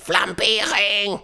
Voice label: "Fireball", Danish; Worms Armageddon; Danish-Pyrus

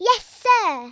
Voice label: yes sir, Speech Kidz; Worms Armageddon

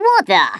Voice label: what the, Stiff Upper Lip

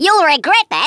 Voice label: YOU'LL REGRET THAT, Australian; Worms Armageddon

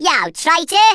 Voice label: "traitor", Brummie; Worms Armageddon

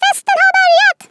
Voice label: FIRST BLOOD, Speech, Swedish

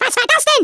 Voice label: LEAVE ME ALONE, Speech, German; Worms Armageddon

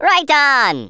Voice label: yes sir, Rasta

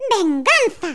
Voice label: "REVENGE", Spanish

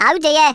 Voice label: "grenade", Brummie; Worms Armageddon

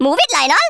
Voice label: hurry, Rasta

